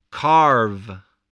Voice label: "carve", spelling